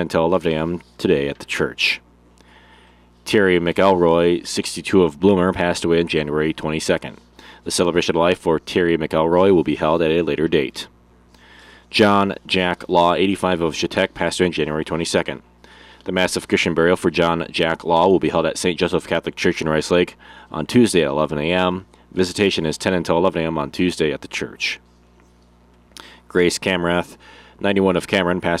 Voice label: Funeral Announcements